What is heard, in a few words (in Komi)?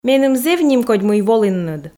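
меным зэв нимкодь мый волінныд, Komi, female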